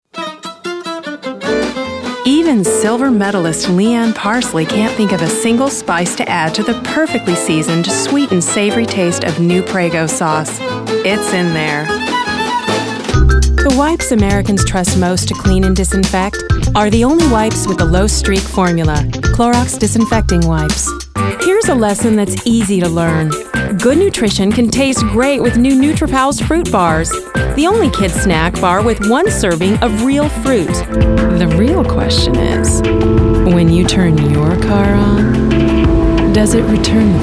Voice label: VO commercial